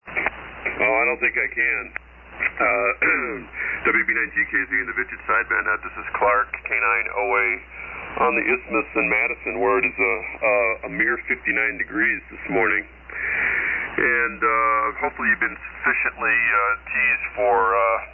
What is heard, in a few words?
Collins 32S-3 75S-3 & 30L-1 Amp; Madison,WI.